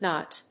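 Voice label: "Naat", English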